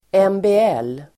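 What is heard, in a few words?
"MBL", Swedish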